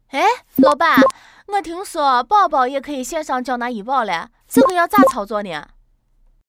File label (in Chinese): 陕西方言 女 宝妈; 方言配音